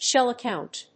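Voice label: "shell account", English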